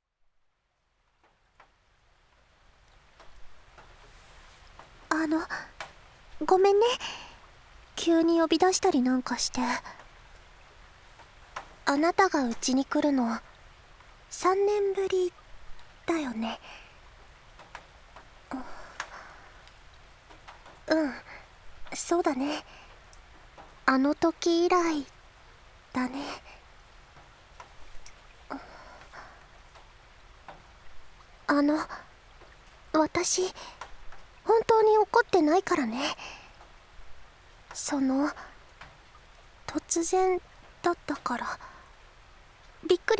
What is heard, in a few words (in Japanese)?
雨降りバージョン, ASMR; 田舎の幼馴染のAV出演を手伝って…; プロローグ